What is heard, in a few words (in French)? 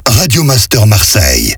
Voix off Accap medium; Radio Master Marseille